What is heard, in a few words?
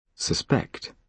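"suspect", British English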